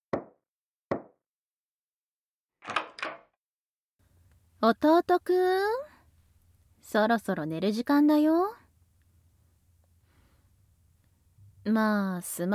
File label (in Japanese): オノマトペ 姉